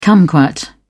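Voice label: "kumquat", British English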